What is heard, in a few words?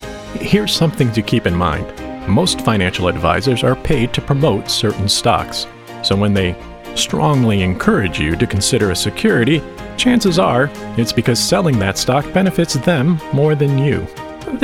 Narration expert approachable explainer